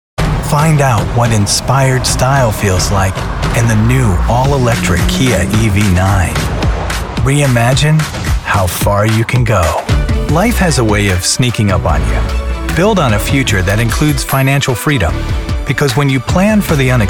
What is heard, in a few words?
Commercial